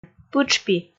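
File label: "пытшпи", Komi, female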